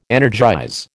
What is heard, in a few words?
"energize", American